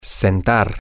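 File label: to sit down, Spanish, male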